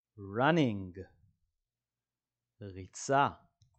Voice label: "running" – "ריצה"

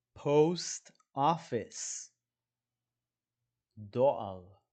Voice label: "post office" – "דואר"

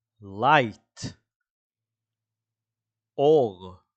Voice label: "light" – "אור"

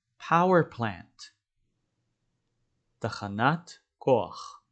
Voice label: "power plant" – "תחנת כוח", dictionary

